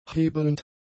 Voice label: "hebelnd", German; adjectives